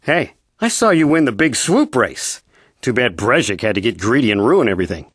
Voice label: male